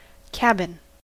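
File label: "cabin", American English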